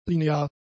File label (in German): "linear", German; adjectives